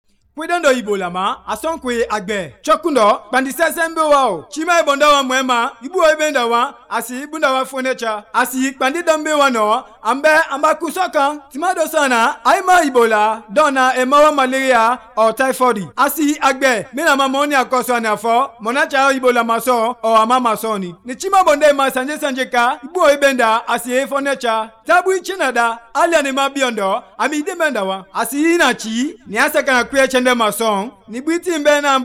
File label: Kono, radio spots; ebola; vhf